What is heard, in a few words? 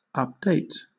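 "update", British English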